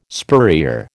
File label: "spurrier", American